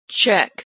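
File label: "check", American English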